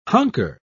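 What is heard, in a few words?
"hunker", English, British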